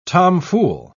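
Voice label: "tomfool", British English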